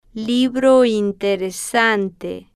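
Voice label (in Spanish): libro interesante, Spanish, female, slow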